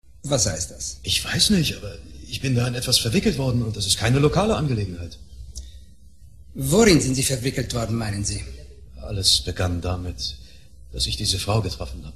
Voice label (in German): Synchron; The Tourist